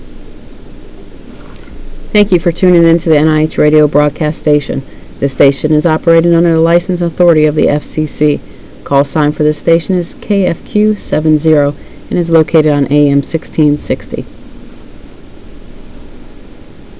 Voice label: broadcast Radio; HARS